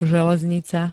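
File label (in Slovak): "železnica", slovenské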